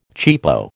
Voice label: "cheapo", American